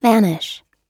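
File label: "vanish", English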